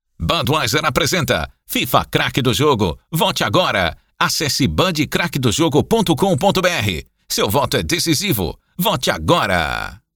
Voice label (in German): PT BRA Werbung Dynamisch